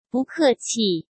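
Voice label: "不客气", Mandarin Chinese; chinese flashcards; hsk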